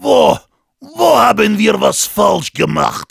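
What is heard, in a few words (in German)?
jeers, German; "Wo... wo haben wir was falsch gemacht?"; Heavy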